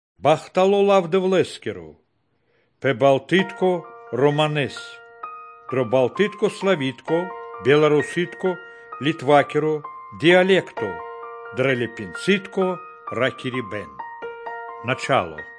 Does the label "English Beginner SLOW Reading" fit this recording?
No